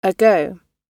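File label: "ago", British English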